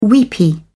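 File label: "weepy", British English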